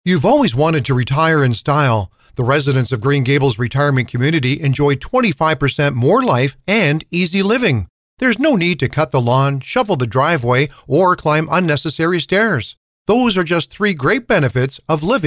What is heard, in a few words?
dry